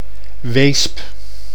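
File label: "Weesp", Dutch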